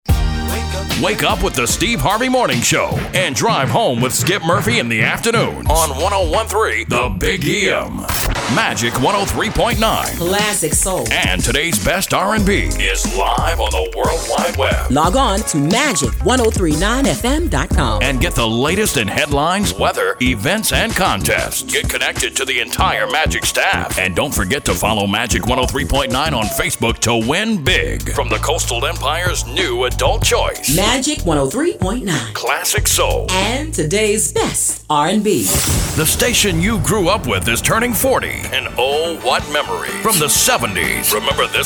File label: Urban AC; Apollo